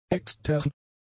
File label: "extern", German; adjectives